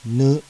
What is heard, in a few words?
"NU", kana